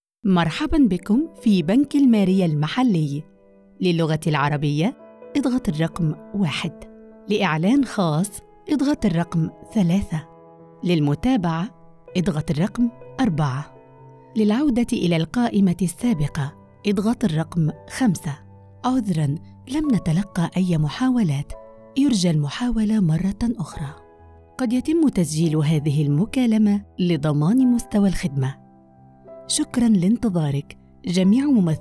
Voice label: IVR ARABIC

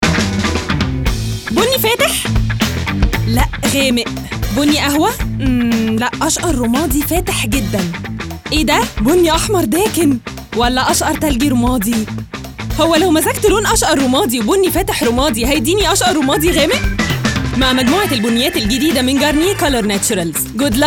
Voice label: EG Commercial, Arabic; Garnier

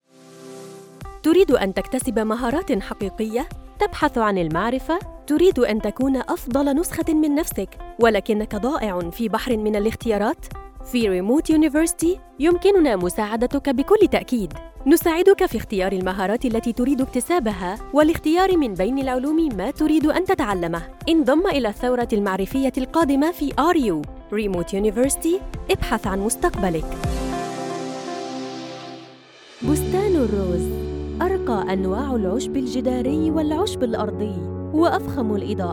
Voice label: MSA Egyptian

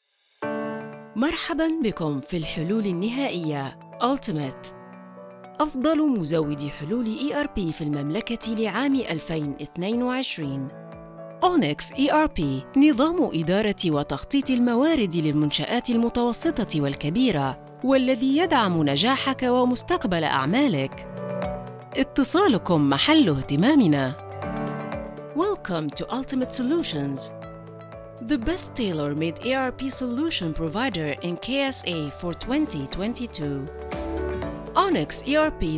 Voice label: IVR VOMusic; Ultimate Solutions

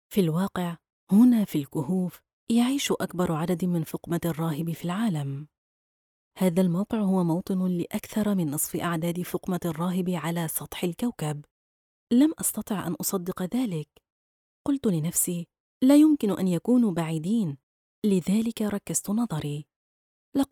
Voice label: modern standard arabic narration; فقمات البحر الأبيض

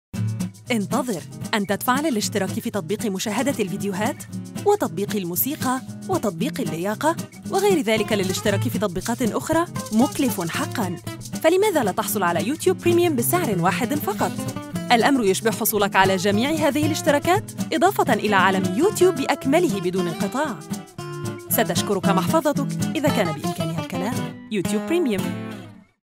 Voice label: MSA Commercial, Arabic; YouTubePremium

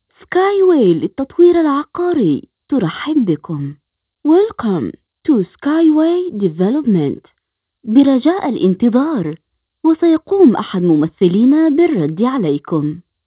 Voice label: IVR; Sky way

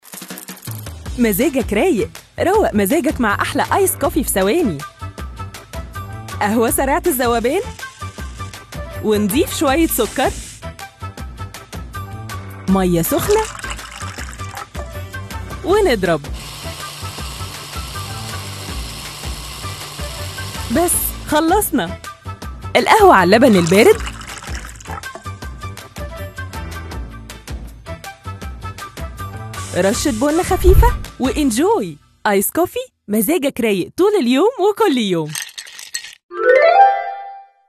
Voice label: EG Commercial, Arabic; Recipes2

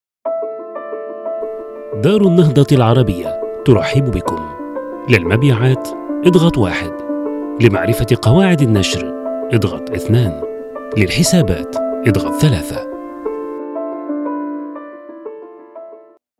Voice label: IVR

